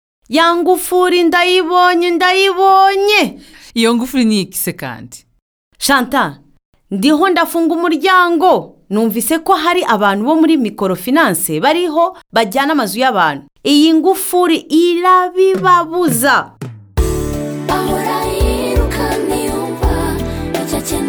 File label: Public Service Announcement Kinyarwanda; FinLit